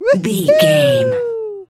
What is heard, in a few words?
voice fun character cute cartoon